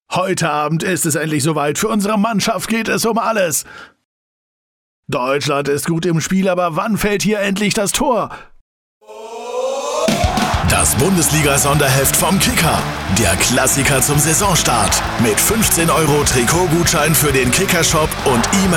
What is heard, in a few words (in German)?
fussball reportage